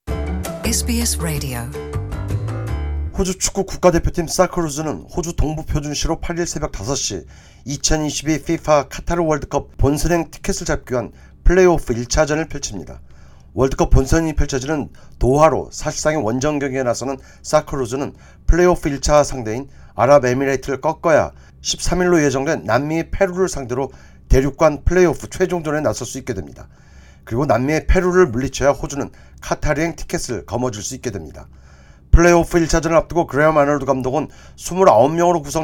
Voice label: korean; socceroos